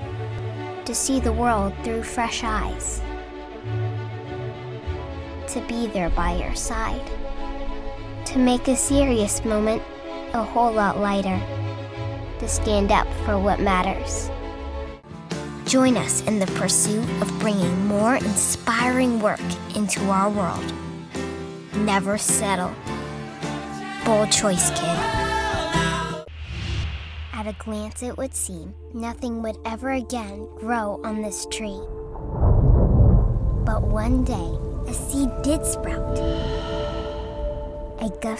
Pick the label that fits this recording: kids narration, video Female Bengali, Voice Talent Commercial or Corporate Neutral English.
kids narration